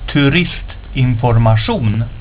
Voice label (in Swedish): "turist information", Swedish; word of day